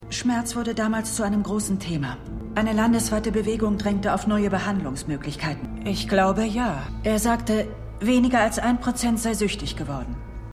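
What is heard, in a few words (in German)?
Ärztin; Stimmproben; Dopesick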